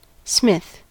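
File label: "smith", US English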